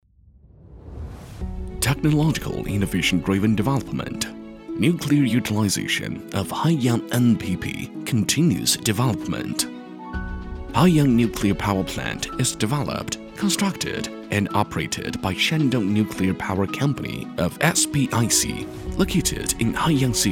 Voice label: 英男 英语 浑厚 国企企宣

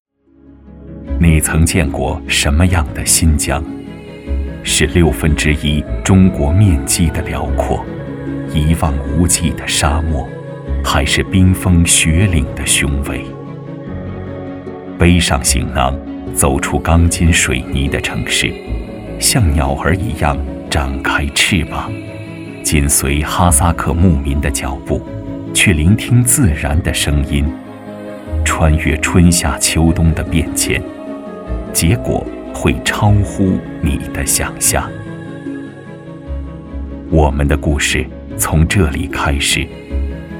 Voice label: 男,神奇的阿勒泰羊

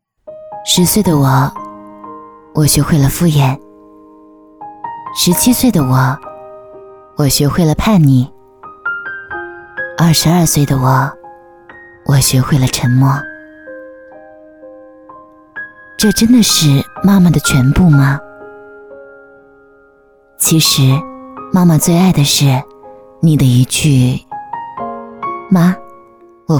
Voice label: 女 微电影旁白; 十岁的我

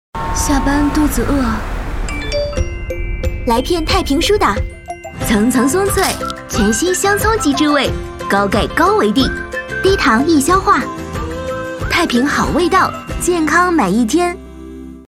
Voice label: 女 广告 青春欢快食品; 太平梳打饼干全新香葱鸡汁味

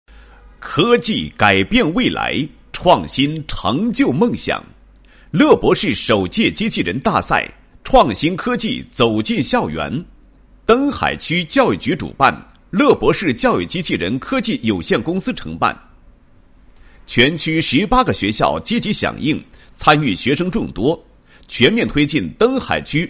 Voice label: 男; 教育机构; 乐博士首届机器人大赛

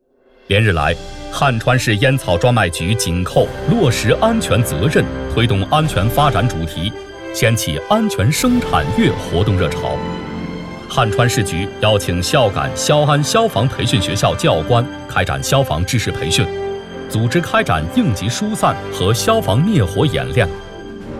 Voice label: 男 政务部门介绍; 汉川市烟草局

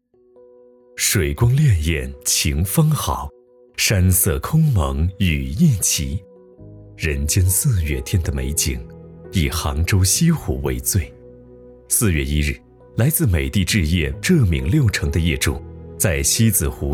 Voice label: 男 旁白; 美的置业

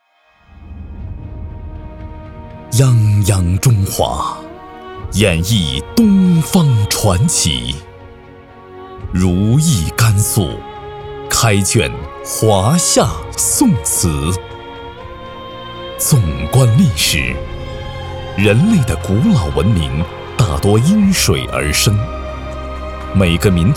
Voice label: 男 专题 黄河文化

